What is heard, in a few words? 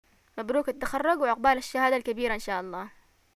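greeting graduation, Yemeni Arabic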